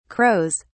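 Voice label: "crows", British English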